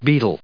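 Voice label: "beetle", English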